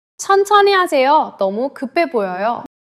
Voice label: neutral, monologue